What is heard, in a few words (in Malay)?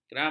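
"keramat", Malay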